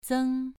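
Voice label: "zeng2", Chinese pronunciation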